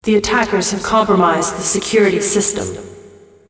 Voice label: Mars Prison Announcer; Timesplitters 3